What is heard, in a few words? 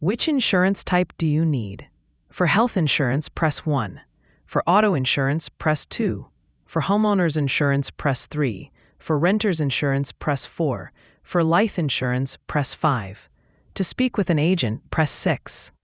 question english